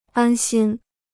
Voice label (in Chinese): "安心", Chinese